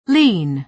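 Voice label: "lean", American English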